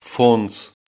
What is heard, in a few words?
"fondz" Ossetian